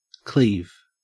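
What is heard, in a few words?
"cleave", Australian English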